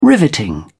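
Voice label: "riveting", British English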